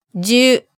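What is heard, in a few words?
"ju", Japanese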